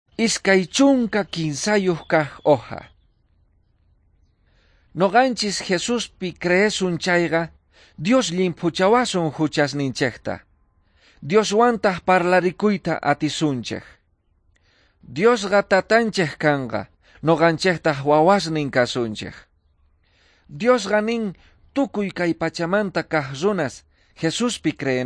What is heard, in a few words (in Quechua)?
quechua bolivian cochabamba; good news